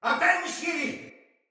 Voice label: See Ally Die, Soldier Male; Sinecure Soldier Male A